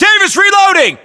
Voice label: US inform reloading, battlechatter, english; localized english